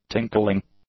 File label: "tinkling", English; nouns